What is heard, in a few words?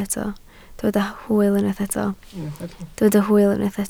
"hwyl", Welsh